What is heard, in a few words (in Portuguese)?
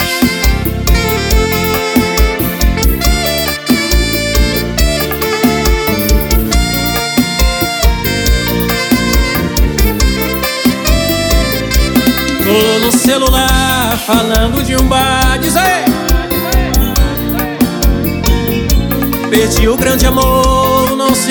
ao vivo locutor